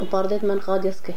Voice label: quarrel, Domari